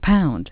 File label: "pound", en; PBXNSIP